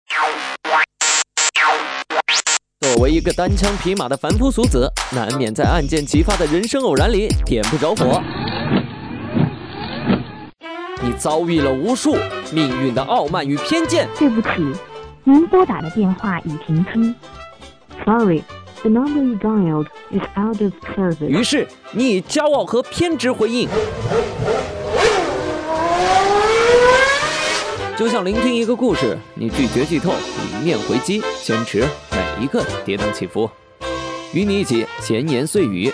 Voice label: 节目片头

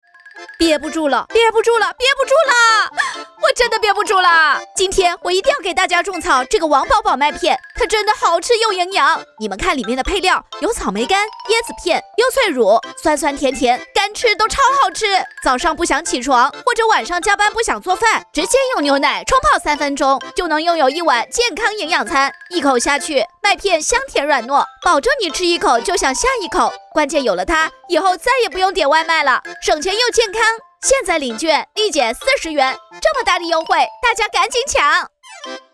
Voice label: 抖音带货广告

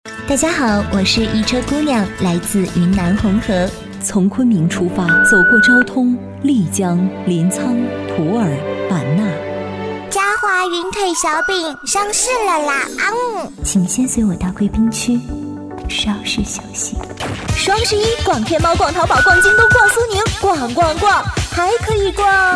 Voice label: 广告展示

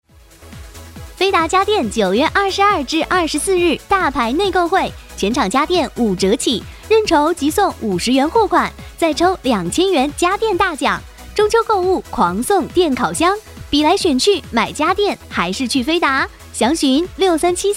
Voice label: 电台广告; 飞达广告

